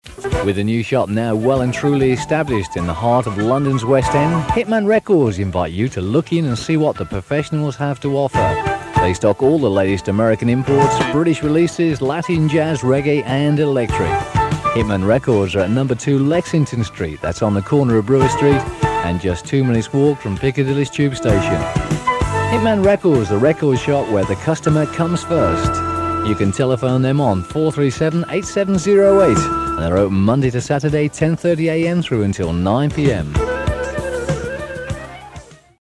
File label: Radio Advert; Hitman Records